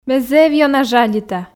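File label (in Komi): ме зэв ёна жалита, Komi, female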